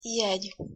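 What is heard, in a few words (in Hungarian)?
"jegy" Hungarian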